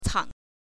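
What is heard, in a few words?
"cang", Mandarin pinyin, neutral tone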